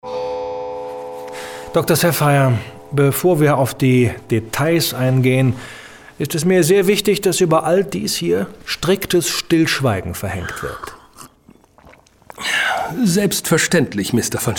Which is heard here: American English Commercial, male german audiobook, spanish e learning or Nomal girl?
male german audiobook